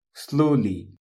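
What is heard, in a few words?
"slowly", English; properties of objects new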